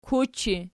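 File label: "kuchi" nominative, Archi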